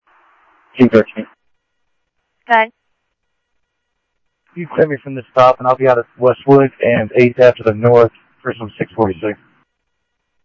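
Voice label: police radio scanner traffic, Mesa Simulcast; MesaPoliceDep; MESA PD 1 Fiesta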